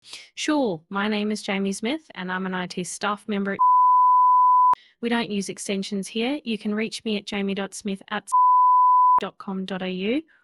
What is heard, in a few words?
example au accent